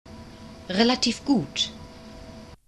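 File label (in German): "relativ gut", German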